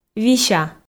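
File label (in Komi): "вися", Komi, female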